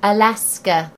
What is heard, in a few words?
"alaska", en GB; gcompris